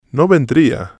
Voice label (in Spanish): no vendría, Spanish